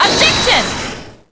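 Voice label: "Objection", female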